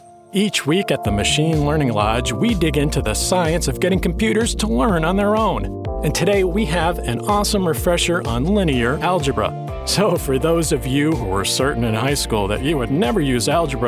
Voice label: eLearning machine learning energetic funny